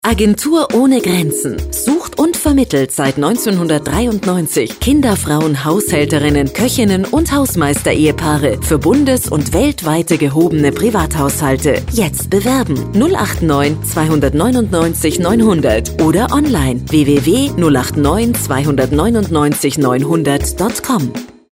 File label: spot; charivari